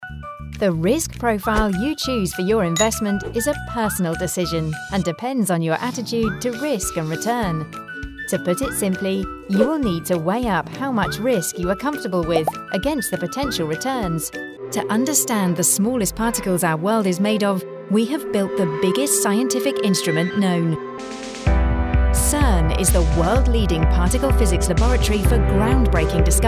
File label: Corproate